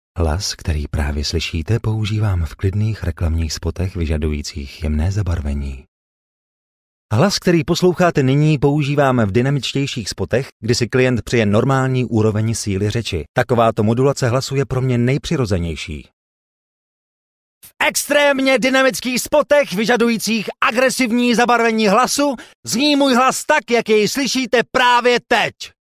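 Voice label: Czech, male, various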